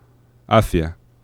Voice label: "hacia", fricatives; laboratori fonetica